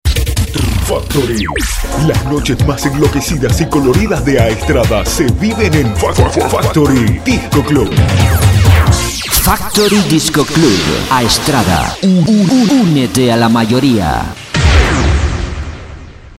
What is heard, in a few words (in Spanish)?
comercial; Factory